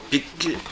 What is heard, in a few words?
"bikki", minamiyamagata; 蛙。〈べっき〉とも。